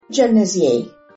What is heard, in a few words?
Guernésiais